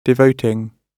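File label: "devoting", British English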